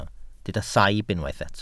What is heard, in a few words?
"saib", Welsh